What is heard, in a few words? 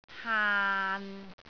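"haan6", Cantonese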